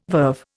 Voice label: "verve", Indian

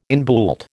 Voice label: "inbuilt", American

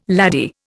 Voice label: "laddie", Indian

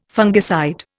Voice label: "fungicide", Indian

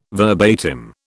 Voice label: "verbatim", British

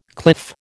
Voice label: "cliff", American

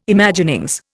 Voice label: "Imaginings", Indian

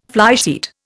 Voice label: "flysheet", Indian

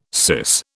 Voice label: "syce", British

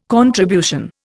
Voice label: "contribution", Indian

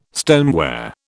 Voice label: "stoneware", British

